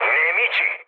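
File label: Italian enemy detected mood high, speech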